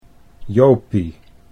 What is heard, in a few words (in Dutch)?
"Jopie", Dutch